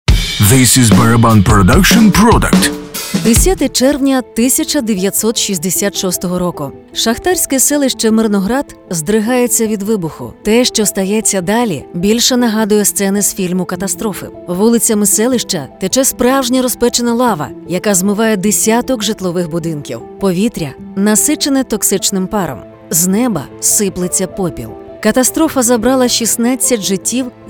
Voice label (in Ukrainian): Ukrainian; Terricones